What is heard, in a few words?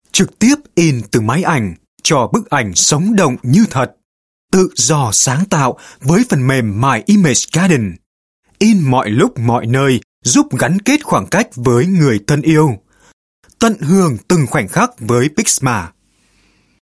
North Male Vietnamese